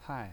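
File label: "pai" fourth tone, pinyin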